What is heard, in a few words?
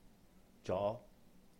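"jó", Cantonese; Completion marker; 咗; zo2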